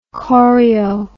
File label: "chorio", English